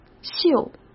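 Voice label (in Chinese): "绣", Mandarin; chinese flashcards; hsk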